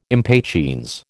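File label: "impatiens", American English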